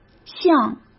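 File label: "像", Mandarin Chinese; hsk; chinese-flashcards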